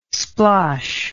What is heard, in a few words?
"splosh", English